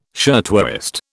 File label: "shirtwaist", British